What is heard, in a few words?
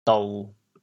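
"dou1", Teochew, chaoyang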